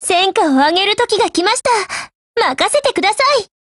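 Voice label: BLACK ACTION, Japanese; GF Alarm; AR70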